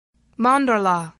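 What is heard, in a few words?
"mandola", English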